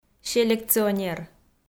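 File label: "селекционер", Komi, female; kpv audio dict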